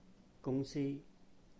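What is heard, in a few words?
"gūng-sī", Cantonese; company; company's; 公司; gung1si1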